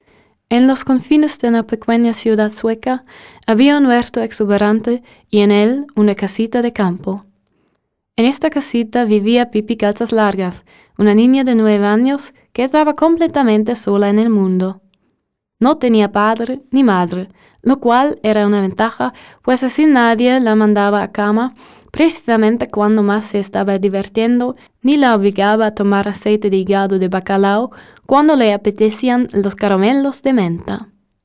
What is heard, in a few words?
spanish